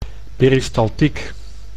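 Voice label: "peristaltiek", Dutch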